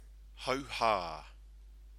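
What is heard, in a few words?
"hauha", Māori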